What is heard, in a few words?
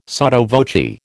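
"sotto voce", American English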